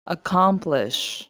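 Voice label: "accomplish", USA, female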